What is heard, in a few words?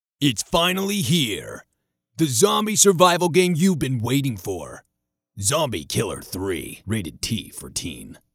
Zombie Trailer